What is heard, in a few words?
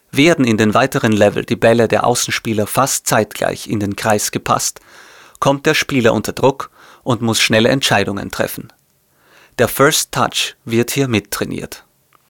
voice over instruction video; Fitlight